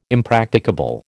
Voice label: "impracticable", American

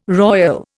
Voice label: "royal", Indian

